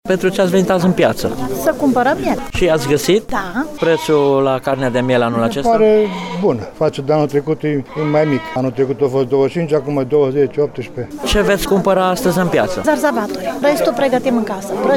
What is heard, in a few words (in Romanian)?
voxuri piata; cumparaturi